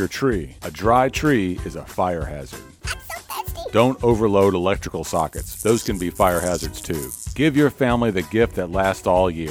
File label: Holiday PSA Radio Spot